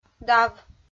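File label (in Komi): "дав", Komi, female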